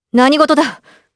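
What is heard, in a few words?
Vox, voices, Japanese; kingsraid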